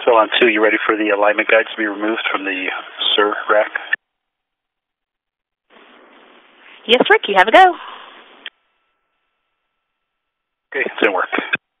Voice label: Space to Ground; Expedition 39